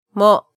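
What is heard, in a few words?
"mo", Japanese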